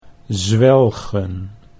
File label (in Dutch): "zwelgen", Dutch